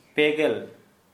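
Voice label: "thirty", Santali, male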